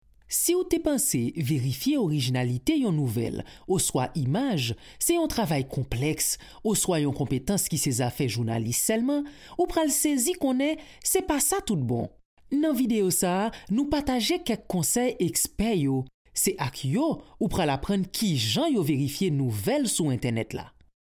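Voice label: Haitian Creole, Elearning; GoLocalise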